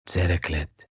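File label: I Love You Hungarian M, male, Hungarian; Endearment, foreign; Talking Sound Effects; Sound Effects:Voice; VoiceBox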